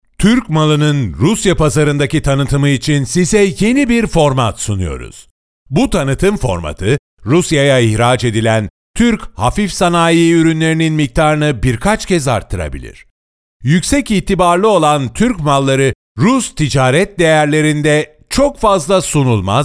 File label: Turkish male